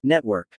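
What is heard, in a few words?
"network", English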